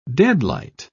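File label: "deadlight", en, British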